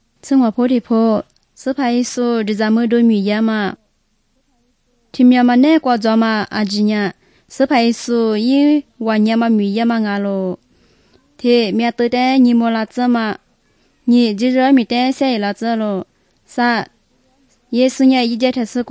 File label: good news lisu southern, drama